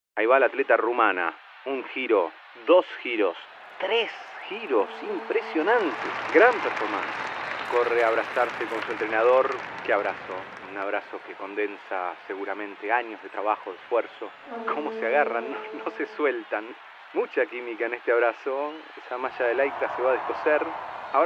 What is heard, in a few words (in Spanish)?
locutor actings